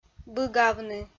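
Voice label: "быгавны", Komi-Zyrian, female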